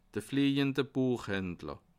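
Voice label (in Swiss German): "fliejende", Bas Rhin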